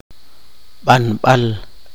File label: poqomchi; usado